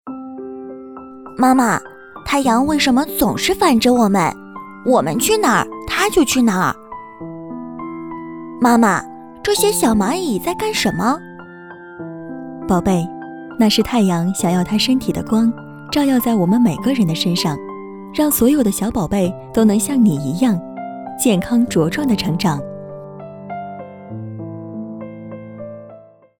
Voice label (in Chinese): 女童 妈妈 宝贝 感谢你